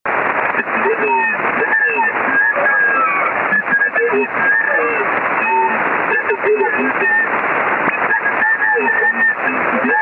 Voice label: Voices Encrypted Ri, static

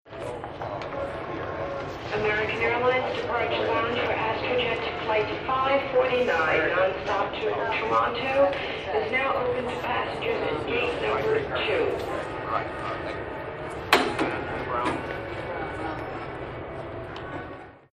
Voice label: PA Announce Airport

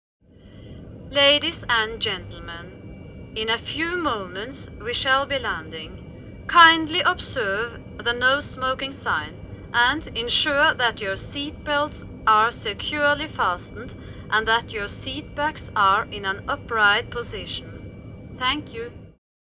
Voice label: Airport Preflight An, flight announcements

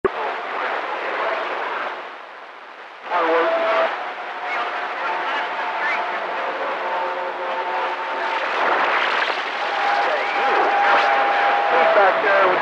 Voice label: Voices CB Radio Static

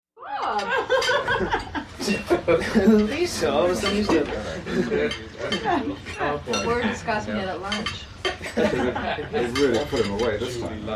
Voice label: Mealtime Chatter, party

